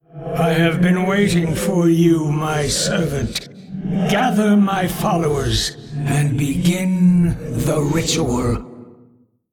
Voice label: Male Old God Bark

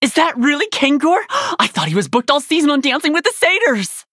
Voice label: warrior nonbinary night elf

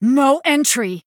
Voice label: Female Blood Elf Attack

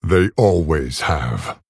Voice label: Orc, GREETINGS; Thrall

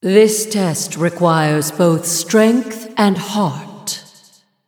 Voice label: Female Night Elf

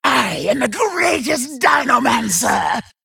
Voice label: Female Saurok

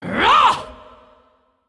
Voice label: Female Dragon Undead Onyxia Boss Uses Hero Power

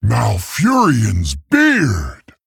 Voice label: Male Tauren Wow

